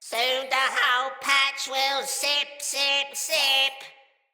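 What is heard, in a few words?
See Player Corrupted Sprouts, en; Battle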